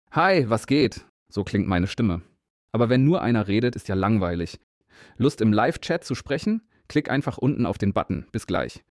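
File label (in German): Stimmprofil DE männlich; Red Bull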